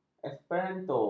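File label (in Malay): "esperanto", Malay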